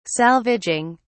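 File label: "salvaging", British English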